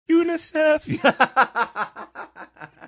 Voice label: saying unicef high pitched